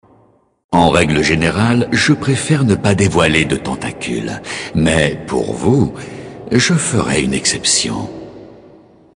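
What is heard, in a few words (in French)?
Male Flirt, voix françaises; Elfe du Vide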